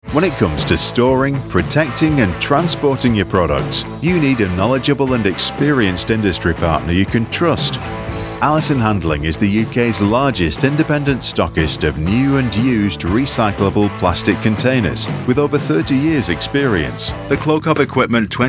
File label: corporate